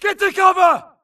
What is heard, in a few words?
grunt flee Player Titan Call; imc; Titanfall 2